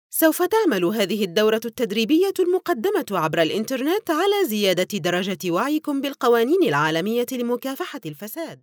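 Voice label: Arabic, female, narration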